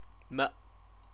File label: "me", Chinese